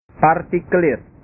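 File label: "partikelir", Indonesian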